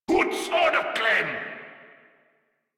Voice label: Player Spotted, Grineer Male; Warframe